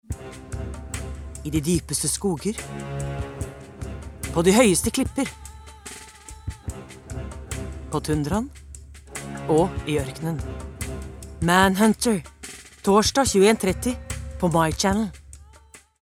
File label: no dramatic